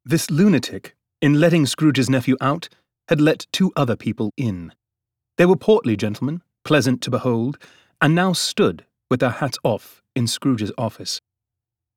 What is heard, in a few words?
British Dialect